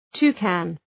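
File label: "toucan", English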